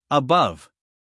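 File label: "above", American English, male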